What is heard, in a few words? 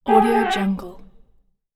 alien voice